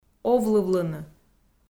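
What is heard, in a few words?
"овлывлыны", Komi, female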